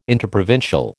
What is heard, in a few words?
"interprovincial", American